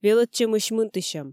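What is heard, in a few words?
"велӧдчӧмысь мынтысьӧм", Komi, female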